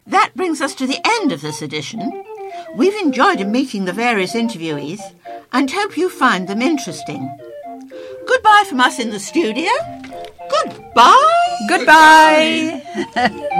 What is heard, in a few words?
Closing Announcement